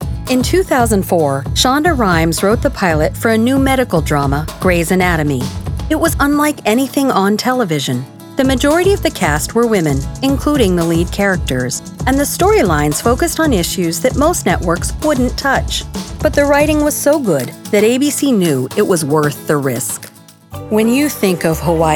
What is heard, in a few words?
Narration